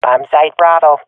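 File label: loc b, swat female; vo; agents; Source Model Packs; Counter Strike Model Pack; CS2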